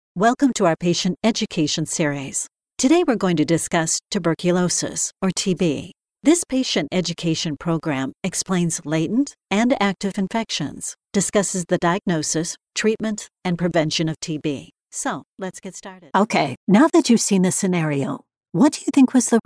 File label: eLearning Training